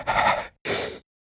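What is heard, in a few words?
voice Sad, Korean; heroes; Riheet; kings raid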